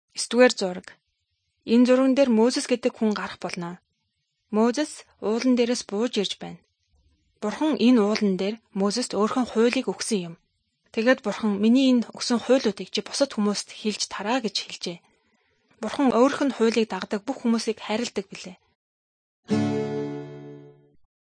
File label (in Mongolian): good news mongolian